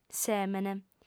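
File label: "sèmene", female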